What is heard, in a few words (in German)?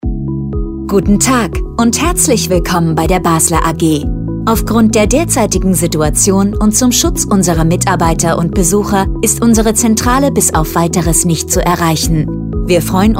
Corona Ansage; Basler AG